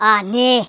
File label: uh oh, Geordie; speech